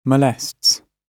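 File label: "molests", British English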